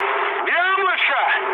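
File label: Russian bomb success mood med, speech; War Thunder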